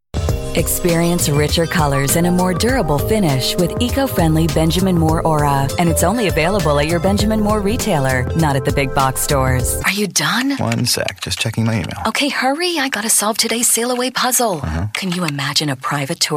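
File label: female English Canada commercial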